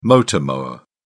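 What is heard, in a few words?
"motor mower", British English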